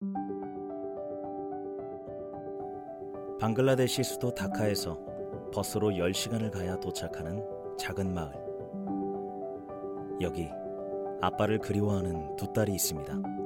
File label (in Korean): 친절한 편안한 홍보